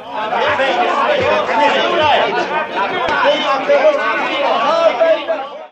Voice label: man arguing and shouting

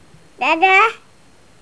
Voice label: baby talk what for

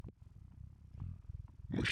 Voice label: "machine", demonic techno voice

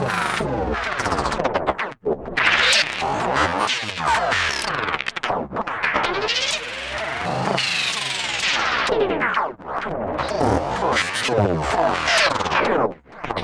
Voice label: fractured texture robotic speech

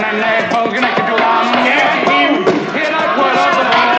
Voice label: SHOUTING ANGRY IN CONFERENCE ROOM

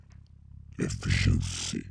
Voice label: efficiency, demonic techno voice

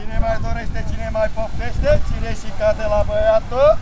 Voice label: man selling cherries on the beach - romanian; soundscapes

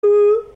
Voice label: man mocking

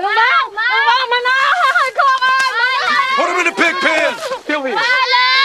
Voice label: Vietnamese yelling; people

